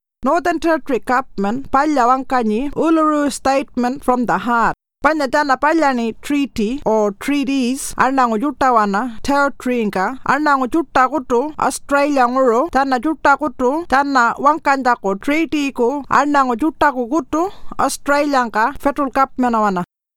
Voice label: Pintupi Luritja; What About Constitutional Change